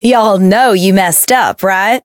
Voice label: Voice variable chant; hoozuki

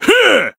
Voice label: attack jp

